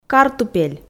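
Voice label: "картупель", Komi, female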